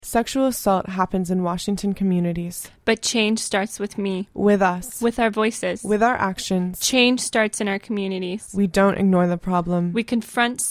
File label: english sexual violence PSA; wasaam